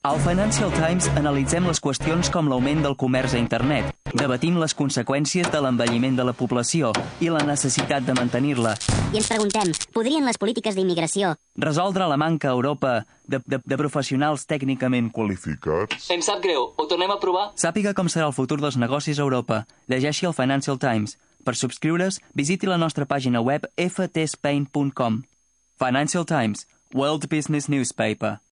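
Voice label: Catalan General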